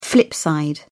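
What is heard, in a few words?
"flip side", British English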